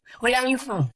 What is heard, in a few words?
Voice Acting, es